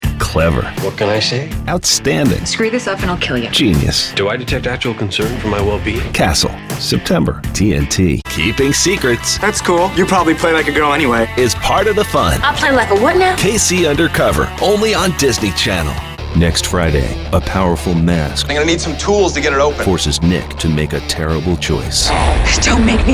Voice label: promo, men